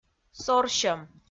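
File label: "сорсьӧм", Komi, female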